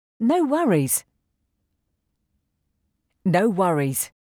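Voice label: No worries, English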